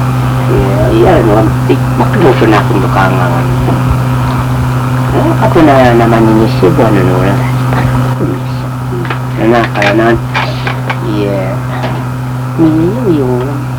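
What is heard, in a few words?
Yami language, male, Iranmilek; nimapo do kalilian a tao; There was a widow.; 【那是一個寡婦】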